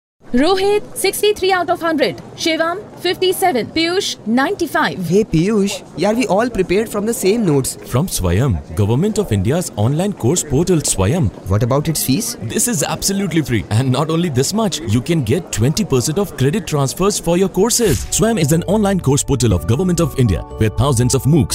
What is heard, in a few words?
TVC English; Swayam